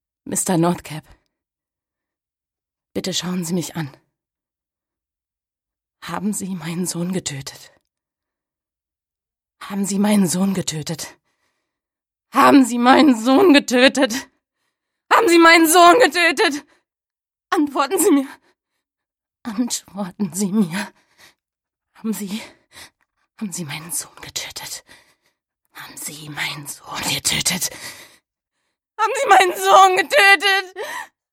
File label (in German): Synchron emotional